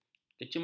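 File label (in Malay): "kecimpung", Malay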